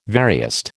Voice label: "veriest", American

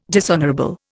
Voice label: "dishonourable", Indian

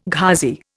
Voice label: "Ghazi", Indian

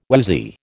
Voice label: "woozy", American

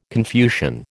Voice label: "Confucian", American

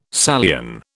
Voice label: "Salian", British

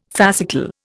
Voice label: "fascicle", Indian

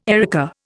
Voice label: "erica", Indian

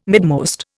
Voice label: "midmost", Indian

